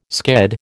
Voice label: "sked", American